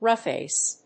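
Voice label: "roughhouse", English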